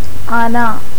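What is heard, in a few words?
"ആന", Malayalam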